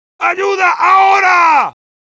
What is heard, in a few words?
help me, es; Heavy